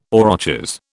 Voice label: "aurochs", British